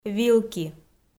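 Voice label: "вилки", Komi, female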